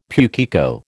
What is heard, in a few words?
"pukeko", American